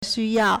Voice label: "xu1yao4", Chinese